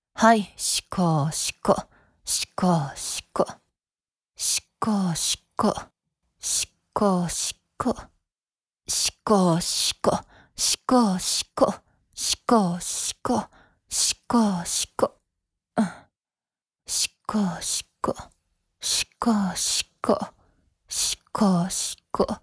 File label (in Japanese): ゆっくりとしこしこの掛け声で自慰を促す; 自慰支援(遅)